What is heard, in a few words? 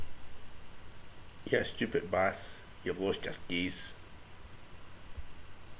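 "Bas", slang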